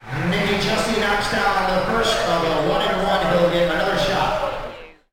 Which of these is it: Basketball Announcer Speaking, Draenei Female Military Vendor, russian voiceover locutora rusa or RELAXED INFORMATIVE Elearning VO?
Basketball Announcer Speaking